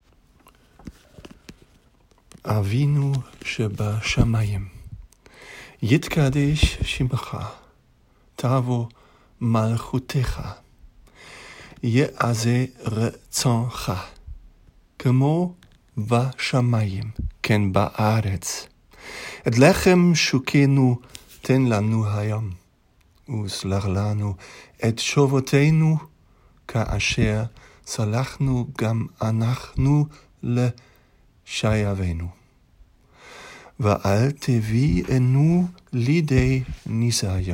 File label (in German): Vaterunser hebraeisch; "Vater unser auf hebräisch" des 18. Wochenimpulses; Familienimpuls